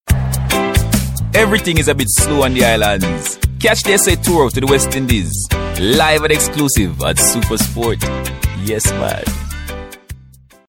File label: Showcase Accent